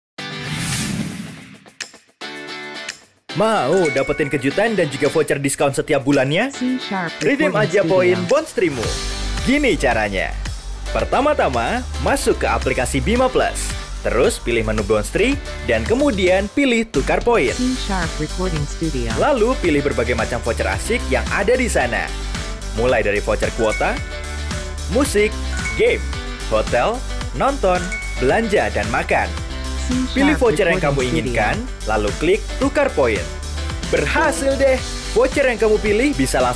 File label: Indonesian Male